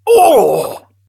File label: Pain; awesomenauts